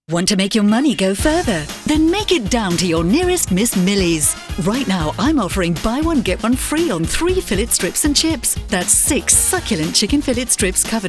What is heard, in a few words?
radio ad; Miss Milies